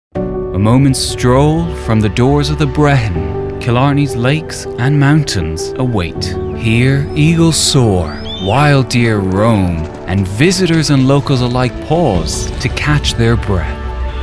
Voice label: male english irish southern neutral irish accent hotel; commercial; brehon